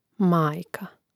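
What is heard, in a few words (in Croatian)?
"majka" Croatian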